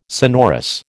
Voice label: "sonorous", American